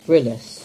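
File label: "Grillis", English; place-names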